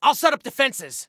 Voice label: Self Task Defenses, Soldier Male

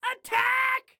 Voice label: Attack, Excited Guy

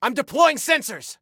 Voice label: Self Task Deploy Sensors, Soldier Male

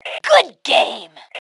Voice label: Compliment Good Game, Female

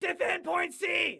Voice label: DEFEND, Excited Guy

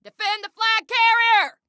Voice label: Defend Flag Carrier, Female

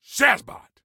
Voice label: "Shazbot", Soldier Male; Soldier Male 03

